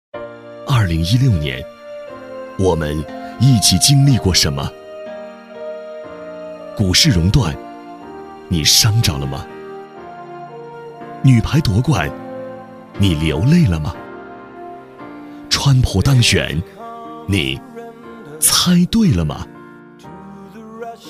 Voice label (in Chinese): 男, 旁白 温暖